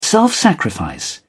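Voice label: "self sacrifice", British English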